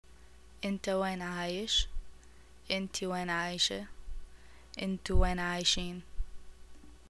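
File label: Iraqi where do you live, arabic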